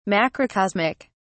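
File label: "macrocosmic", British English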